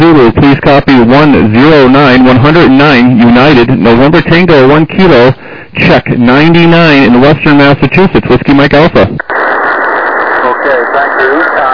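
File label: lower sideband 7MHz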